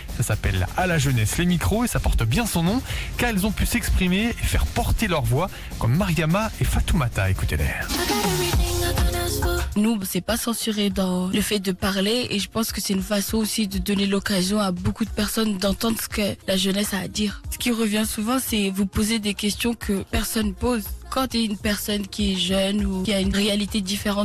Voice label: NRJ Avignon tuner